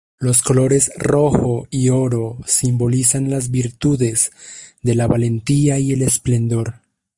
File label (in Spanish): "esplendor", espanyol; mozilla voice